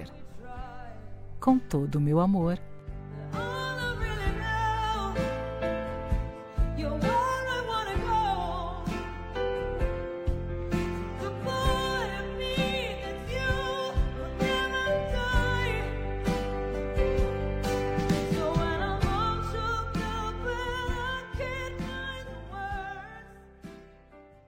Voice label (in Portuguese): namorado fem, Dia dos Pais para Namorado – Voz Feminina